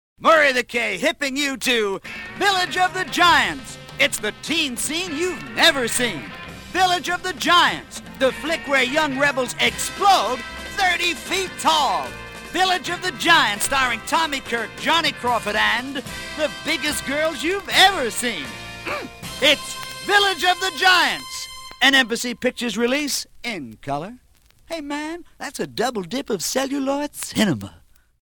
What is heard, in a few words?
radio ad